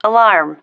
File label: "alarm", female